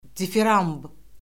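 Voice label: "difiramb", Azerbaijani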